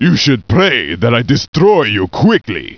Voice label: russian